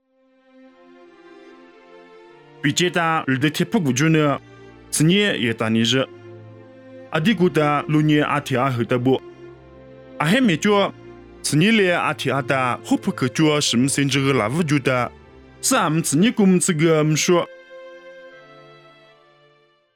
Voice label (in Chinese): 凉山彝语; 地中海亚大利; nuosu